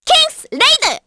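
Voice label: Vox korean; voices; heroes; Hanus; Kingsraid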